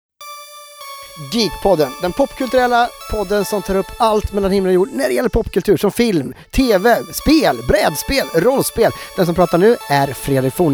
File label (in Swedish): trailer; Geekpodden; En podcast om popkultur